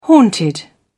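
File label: "haunted", English, uk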